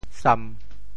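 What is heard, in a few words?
"sam1", Teochew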